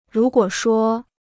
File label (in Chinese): "如果说", Chinese